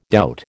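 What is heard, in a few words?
"doubt", American English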